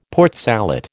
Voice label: "Port Salut", American